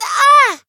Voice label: Voice damaged; leviachan